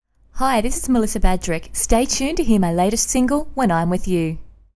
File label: Radio ID